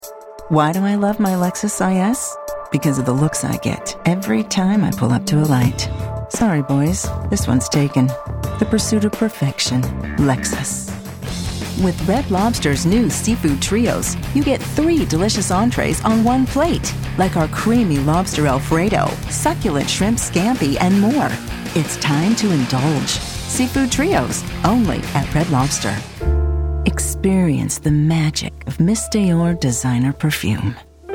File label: Commercial